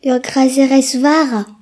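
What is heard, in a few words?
"toaster", Breton; gcompris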